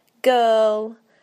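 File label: "girl", British English; PictureDictionary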